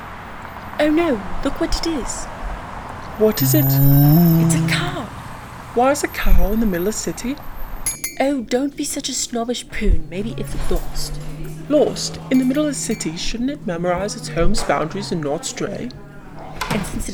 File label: audio narrative